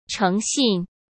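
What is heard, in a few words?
"诚信", Mandarin Chinese; hsk; chinese flashcards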